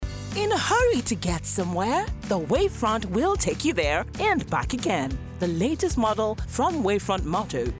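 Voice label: English, African, female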